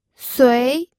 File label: "sui2", pinyin